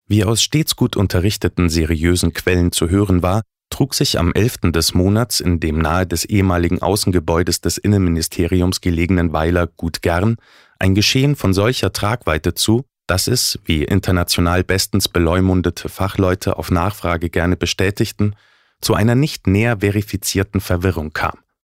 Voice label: Trocken Neutral

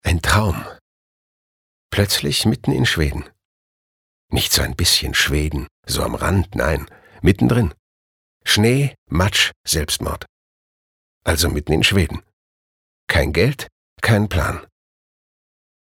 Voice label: Schauspiel, Rau

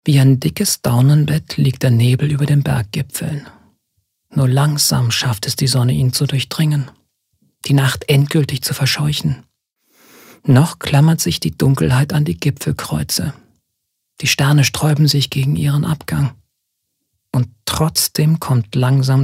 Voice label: Schauspiel Sanft

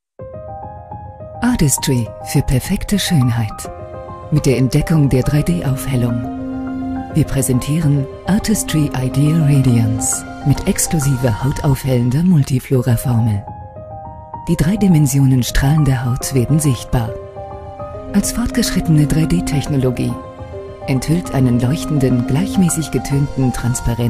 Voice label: Werbung Sanft

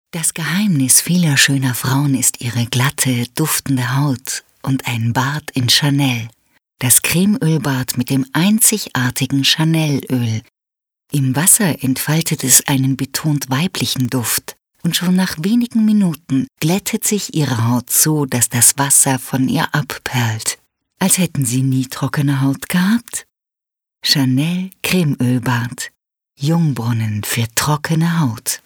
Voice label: Sanft

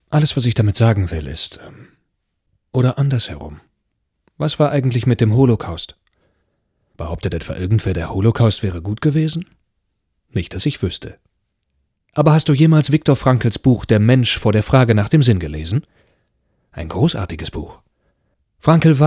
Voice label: Neutral

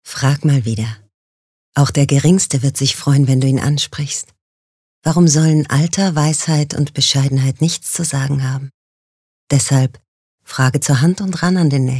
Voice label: Werbung Sanft

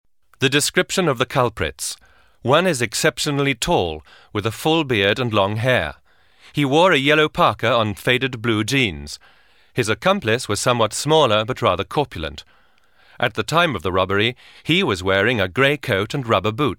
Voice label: Kommentar Neutral

